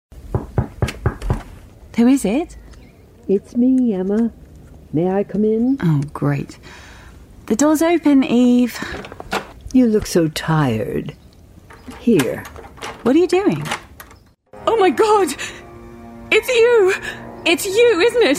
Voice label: Radio Drama Voicereel